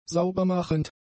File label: "saubermachend", german; adjectives